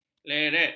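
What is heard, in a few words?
"leret", Malay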